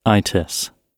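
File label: "itis", British English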